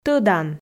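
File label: "тӧдан", Komi, female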